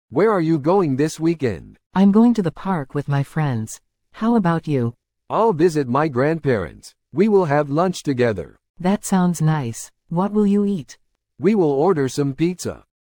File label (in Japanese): 会話 ノーマル